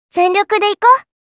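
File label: "Zenryoku", Japanese, kawaii voice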